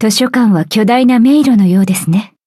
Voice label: upgrade, ja; Cookie Run

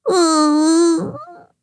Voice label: defeat, zh-hant; cookie run

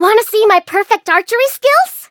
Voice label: menu tap eng; blue slushy; Cookie Run

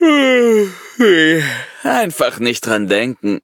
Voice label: defeat, de; Cookie Run

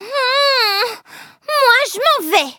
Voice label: defeat, fr; Cookie Run

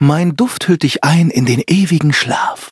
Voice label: loading, de; Cookie Run

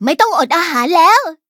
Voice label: level up, th; cookie run

